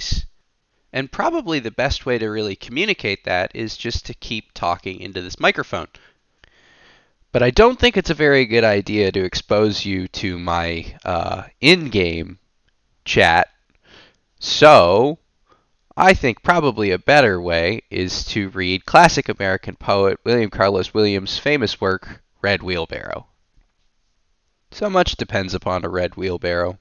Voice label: Arctis 7 Wireless